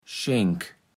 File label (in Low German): "Schenk", platt